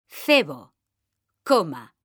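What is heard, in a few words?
"CEBO COMA", Spanish, female; mekanta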